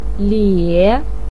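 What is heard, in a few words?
"lie", Mandarin pinyin, tone 3; 咧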